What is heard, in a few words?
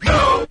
"no" group of men; Voice; expletives